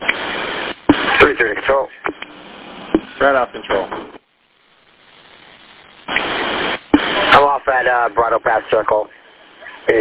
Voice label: Police Radio